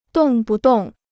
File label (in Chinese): "动不动", Chinese